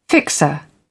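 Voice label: "fixer", British English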